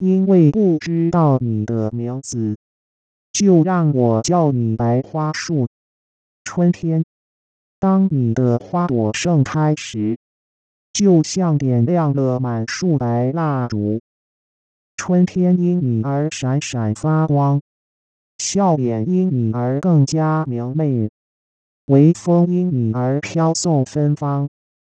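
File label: Synthetic Speech, male, Mandarin singing voice synthesis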